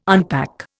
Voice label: "unpack", Indian